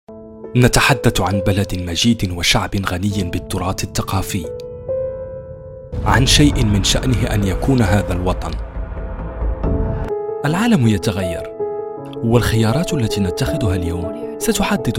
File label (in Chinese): 阿拉伯语男, 深沉语调